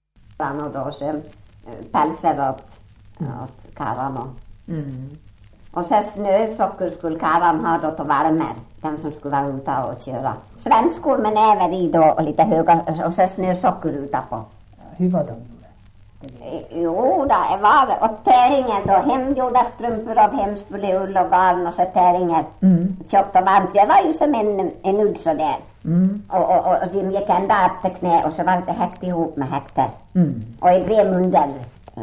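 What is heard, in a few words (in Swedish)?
Ål Dalarna, dialekter, svenska sagor; Folkminnen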